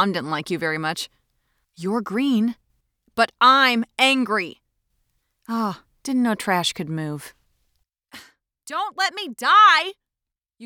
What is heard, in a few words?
Recruit Female